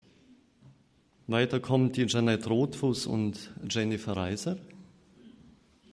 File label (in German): Ansage